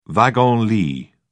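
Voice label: "wagon-lit", British English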